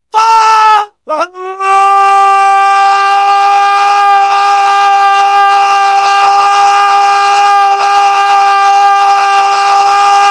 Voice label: scout battle cry